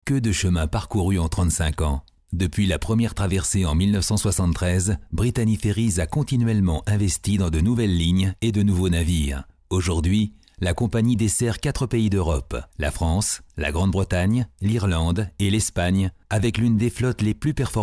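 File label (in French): French, male, narration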